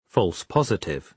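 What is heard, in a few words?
"false positive", British English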